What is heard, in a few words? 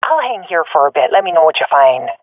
waiting here, swat fem; Counter Strike Model Pack; CS:GO